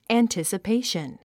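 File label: "anticipation", English word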